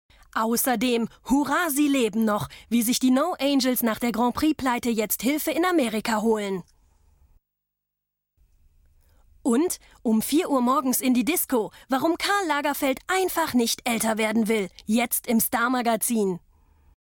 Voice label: trailer; rtl exclusiv; lagerfeld

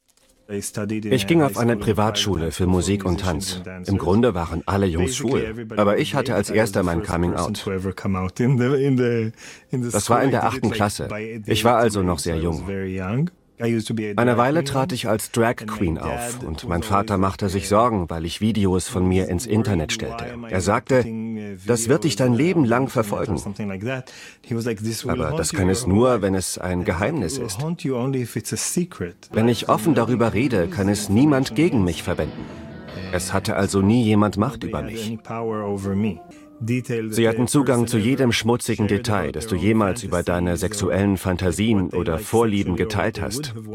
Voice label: voice over; arte

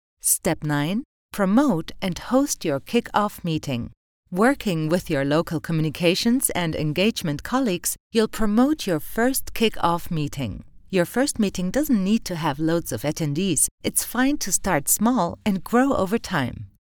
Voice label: en tutorial; csn

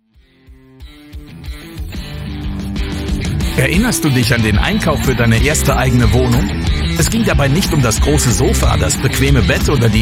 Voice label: commercial

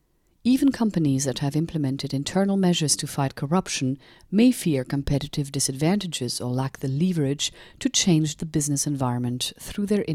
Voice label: training dvd; siemens

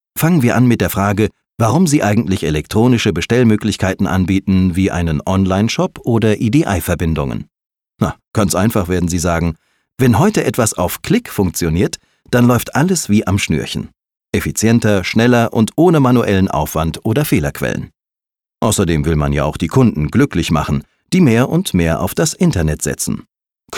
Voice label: e learning deutsch

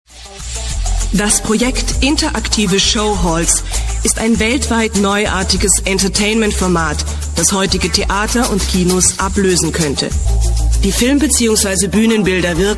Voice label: voice over

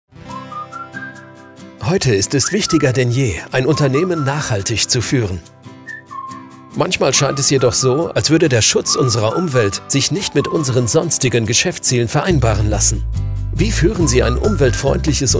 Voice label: explainer goodmood

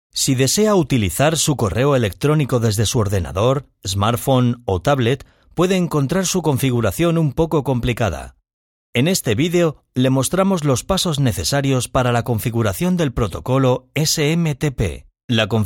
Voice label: e-learning

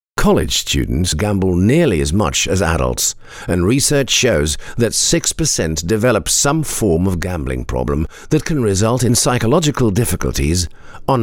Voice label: english

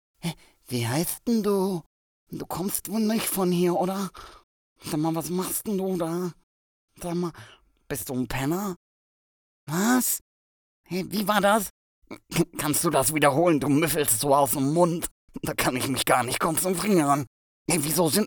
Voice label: cartoon; bratze

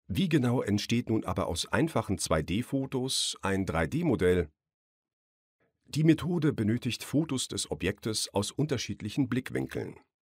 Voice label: elearning

